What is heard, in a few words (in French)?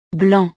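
"blanc", French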